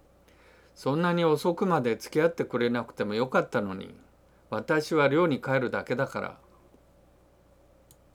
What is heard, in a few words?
dialogue line, japanese